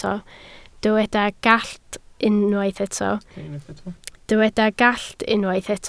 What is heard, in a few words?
"gallt", Welsh